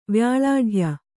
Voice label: "ವ್ಯಾಳಾಢ್ಯ", Kannada

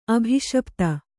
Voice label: "ಅಭಿಶಪಿತ", Kannada

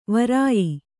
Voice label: "ವ್ರಯ", Kannada

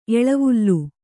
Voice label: "ಎಳೆವಲೆ", Kannada

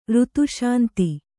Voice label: "ಋತುಶಾಂತಿ", Kannada

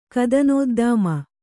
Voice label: "ಕದನೋದ್ದಾಮ", Kannada

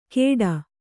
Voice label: "ಖೇಡ", Kannada

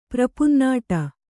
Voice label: "ಪ್ರಪುನ್ನಾಡ", kannada

